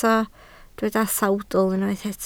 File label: "sawdl", Welsh